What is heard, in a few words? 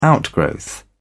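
"outgrowth", British English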